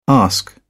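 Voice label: "ask", English, British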